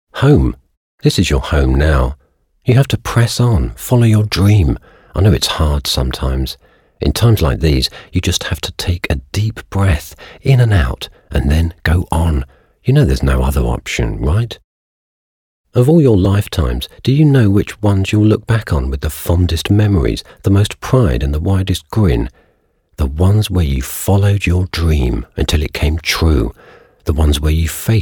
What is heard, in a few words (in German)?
en-uk off-stimme